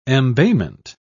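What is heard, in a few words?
"embayment", British English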